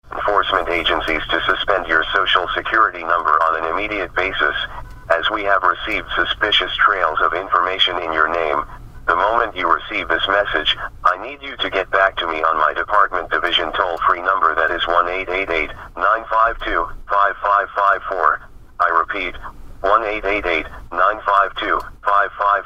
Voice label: robocall